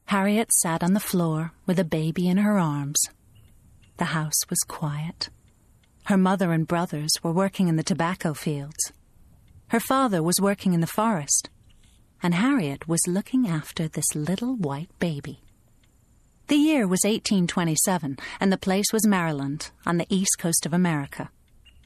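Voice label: drama; 20-30s. US. Young, friendly and warm. Speaks fluent Arabic. Home Studio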